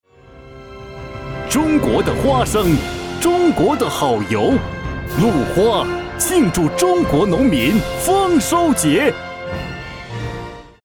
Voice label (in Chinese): 央视广告; dome